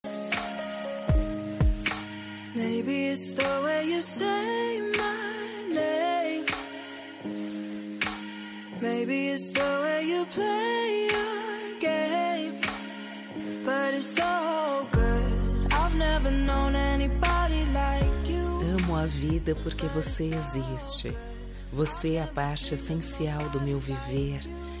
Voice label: dn namorada fem, Dia dos Namorados, Para Namorado, Voz Feminina

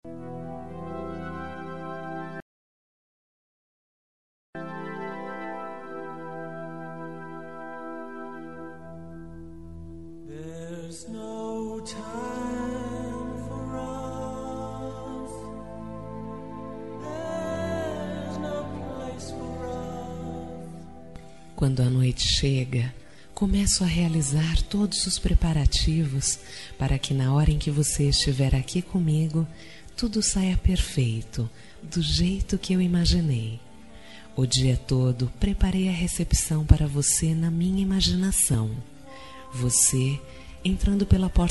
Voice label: Telemensagem Erótica, Voz Feminina

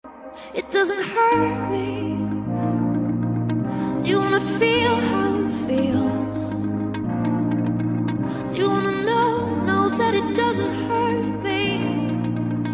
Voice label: inicio fem, suave voz feminina; Dia dos Namorados

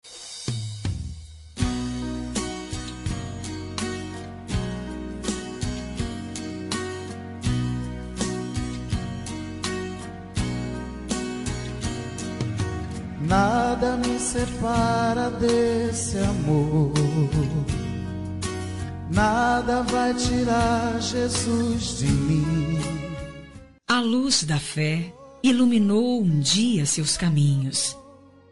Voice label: pastor fem, Voz Feminina; Aniversário de Pastor; Telemensagens para todas as ocasiões

